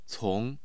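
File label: "cong", Mandarin Chinese, tone 2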